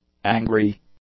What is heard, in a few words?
"angry", English; adjectives